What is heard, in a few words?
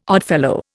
"Oddfellow", Indian